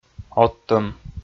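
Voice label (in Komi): "оттӧм", Komi, male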